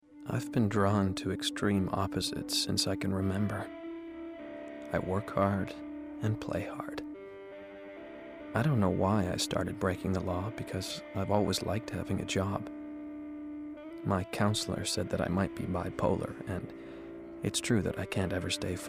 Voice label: Book Read

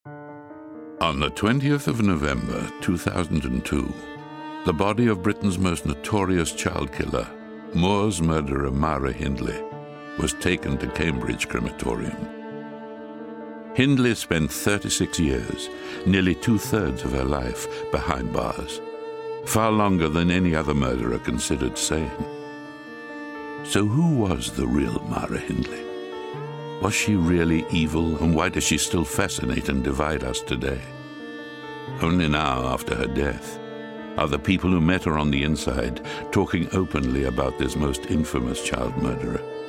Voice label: narrative; moors murders

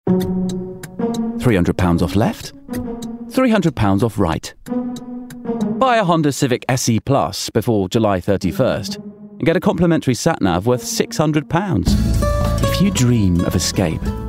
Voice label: Commercial Voicereel; United Voices

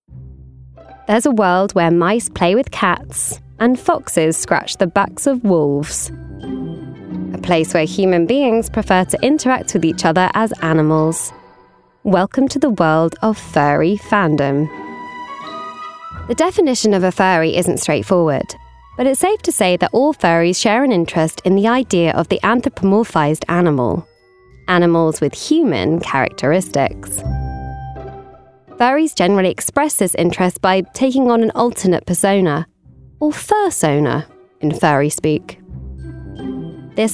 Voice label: DOCUMENTARY; Furries

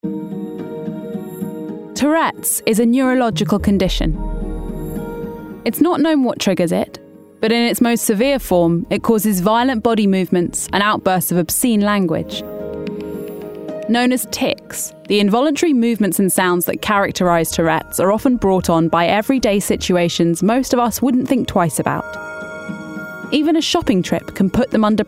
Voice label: DOCUMENTARY; Tourettes

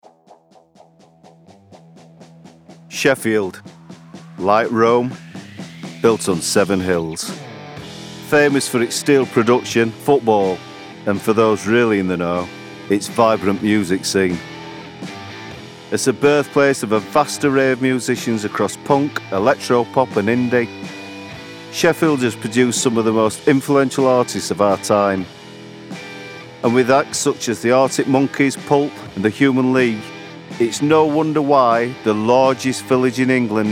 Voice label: narrative

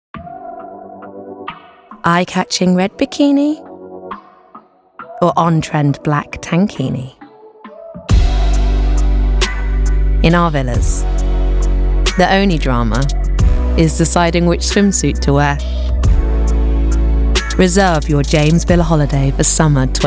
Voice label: COMMERCIAL MIX

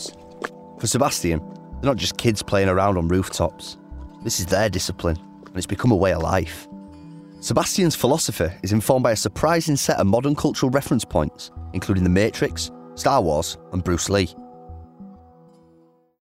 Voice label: DOCUMENTARY Free Running

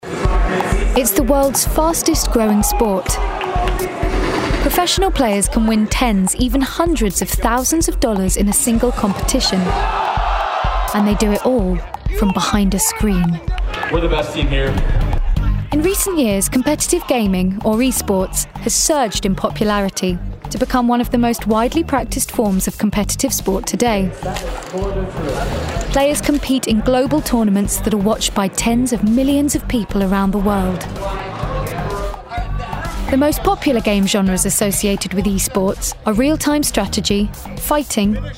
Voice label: DOCUMENTARY; E-Sports

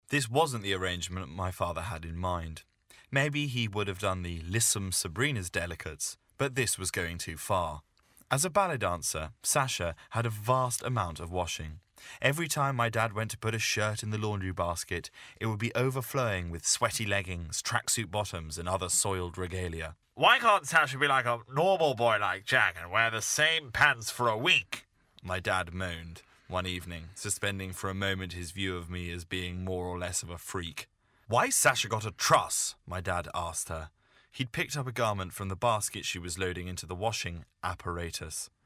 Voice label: Audiobook; Him and Me

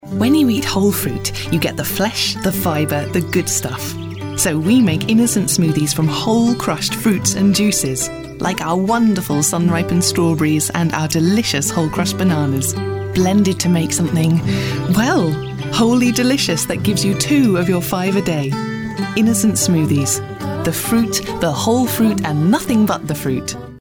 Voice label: Commercial; Innocent Smoothies

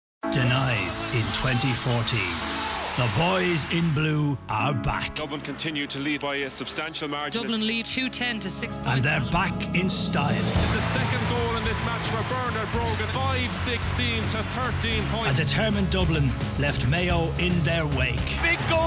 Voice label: commercial VOICE OVER

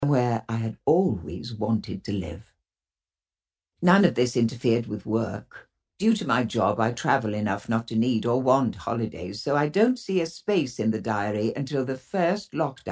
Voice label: AUDIOBOOK; PRIVATE FACES & PUBLIC PLACES

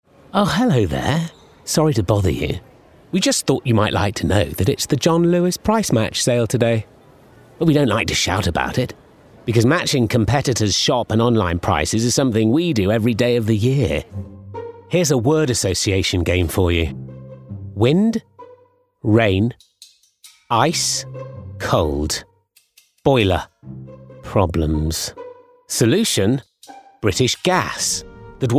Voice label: Commercial Voice reel

